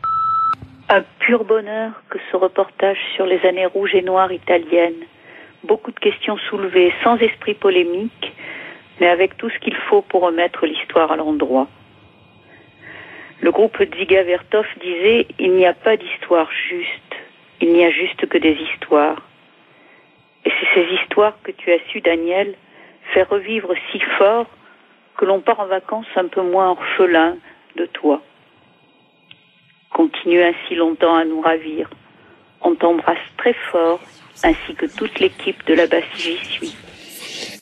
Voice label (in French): répondeur; Annees de plomb